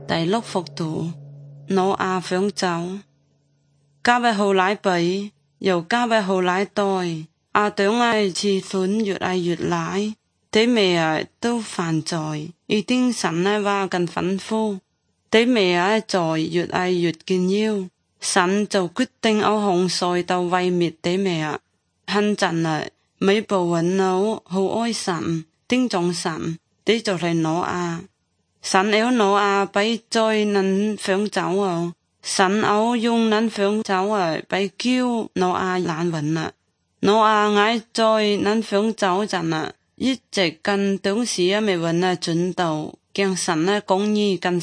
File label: good news zhuang guigang guiping